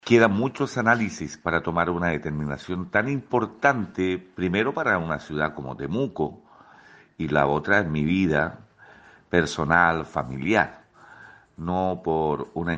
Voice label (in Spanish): Cuña